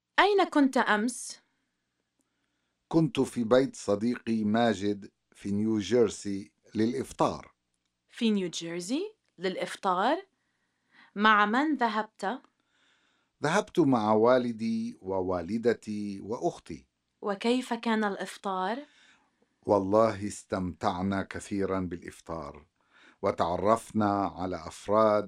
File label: Conversation; SALAMAAT